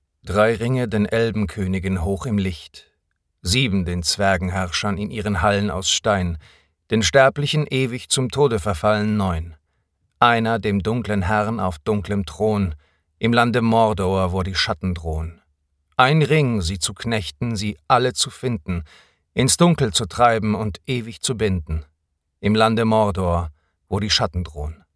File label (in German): neutral; Tolkien - Erzählerstimme